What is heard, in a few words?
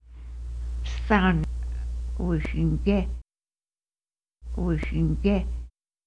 son, quapaw words